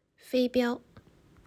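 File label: "飞镖", Chinese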